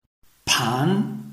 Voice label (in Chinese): pan2, Chinese